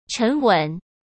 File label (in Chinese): "沉稳", cmn; chinese-flashcards; hsk